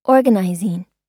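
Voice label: "organizing", American English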